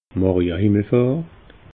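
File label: "maria himmelfahrt", Alsatian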